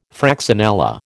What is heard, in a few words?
"fraxinella", American